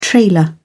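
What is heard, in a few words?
"trailer", British English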